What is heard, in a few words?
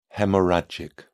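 "haemorrhagic", British English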